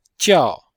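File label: "jiao4", Chinese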